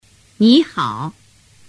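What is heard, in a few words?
"nihao", Chinese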